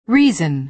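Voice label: "reason", American English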